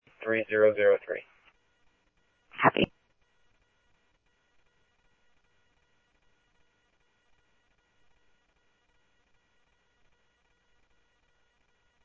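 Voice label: police department, scanner audio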